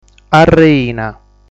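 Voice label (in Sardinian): "Arreina", Sardinian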